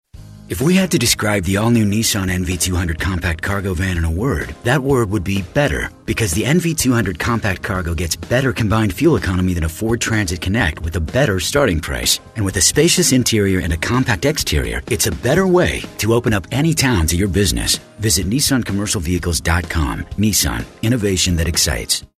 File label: Upbeat Perky